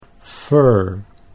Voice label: "fur", Dutch English

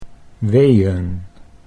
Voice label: "weeen", Dutch

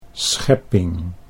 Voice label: "schepping", Dutch

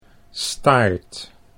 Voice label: "staart", Dutch

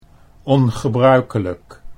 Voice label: "ongebruikelijk", Dutch

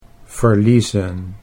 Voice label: "verliezen", Dutch

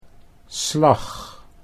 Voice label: "slag", Dutch

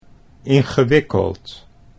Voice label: "ingewikkeld", Dutch

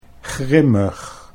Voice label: "grimmig", Dutch

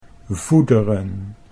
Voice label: "voederen", Dutch

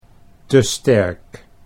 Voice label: "te sterk", Dutch

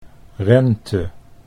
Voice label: "rente", Dutch

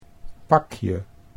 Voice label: "pakje", Dutch

